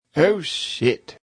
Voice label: Oh Shit, Male; Exclamation Sound Effects; VoiceBox; Twistedtracks